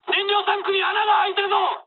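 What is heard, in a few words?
Japanese tank damaged mood high; War Thunder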